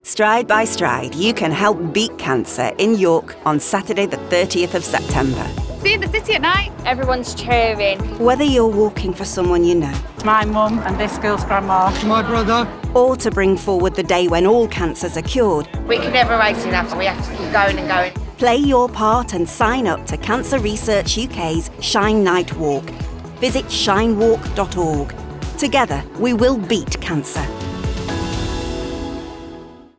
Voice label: female English northern accent; cancer research uk shine night walk appeal; english northern accents